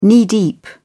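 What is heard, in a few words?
"knee-deep", English, British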